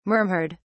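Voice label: "murmured", British English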